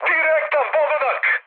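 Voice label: Serbian bomb success mood high, speech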